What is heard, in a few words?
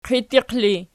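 "qiittiq" ergative, Archi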